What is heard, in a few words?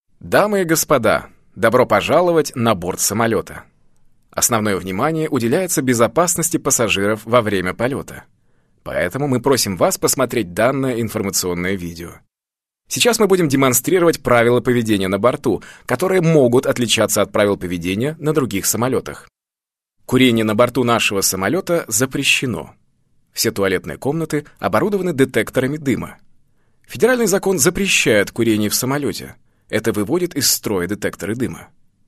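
Russian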